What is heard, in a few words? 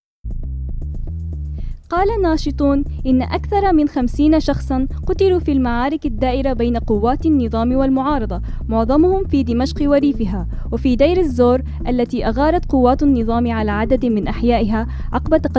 news